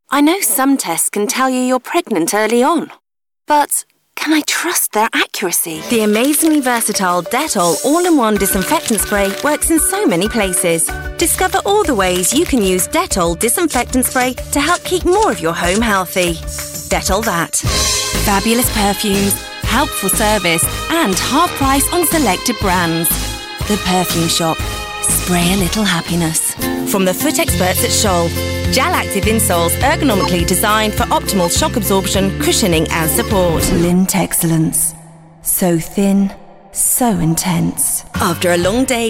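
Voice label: female UK commercial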